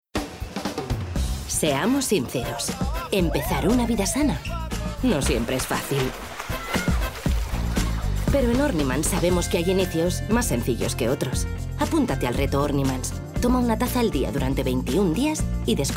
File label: female spanish food comercial; ideasonora